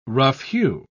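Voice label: "rough-hew", British English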